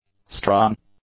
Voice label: "strung", English; adjectives